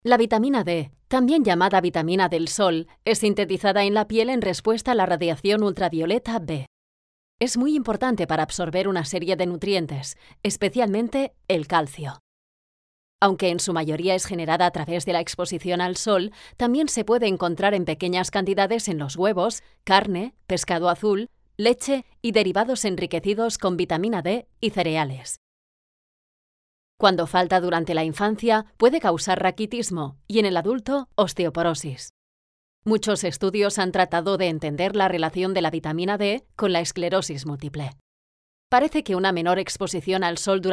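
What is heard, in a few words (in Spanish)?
e-learning, Spain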